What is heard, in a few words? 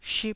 "ship4", taiwanese Syllables